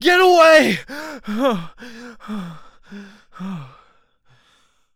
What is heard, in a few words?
Panic; zps; Humans; HumanMale-01